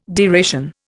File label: "deration", Indian